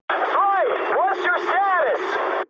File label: eng chat, speech eng